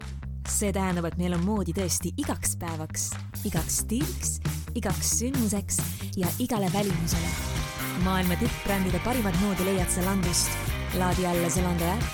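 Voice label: Estonian general; GoLocalise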